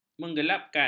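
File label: "menggelapkan", Malay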